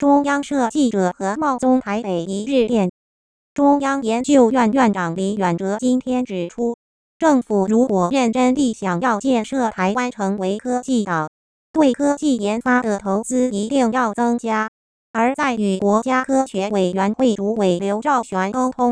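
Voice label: Synthetic Speech, News reporting, Mandarin, Using linear time mapping and PSOLA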